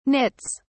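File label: "nits", British English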